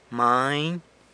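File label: "many", animal, San Lucas Quiaviní Zapotec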